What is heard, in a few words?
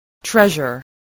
"treasure", voice